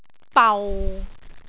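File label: "bau6", Cantonese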